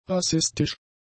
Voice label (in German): "rassistisch", German; adjectives